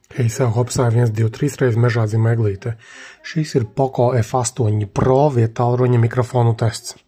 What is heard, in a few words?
POCO F8 Pro mic; viedtalrunis